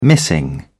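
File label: "missing", British English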